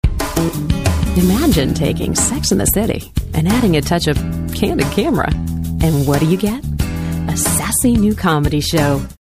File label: sexy